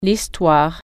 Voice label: history, french